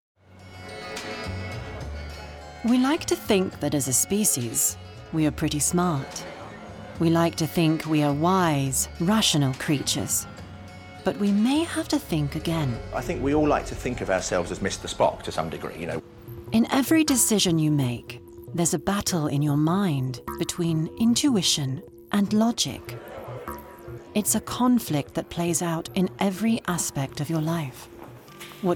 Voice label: narrative reel